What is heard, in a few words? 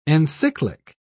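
"encyclic", British English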